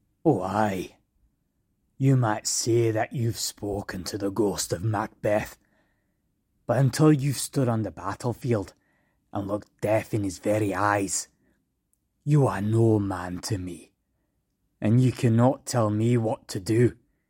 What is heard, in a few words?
scottish